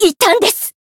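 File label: Voice damaged Japanese; rosetta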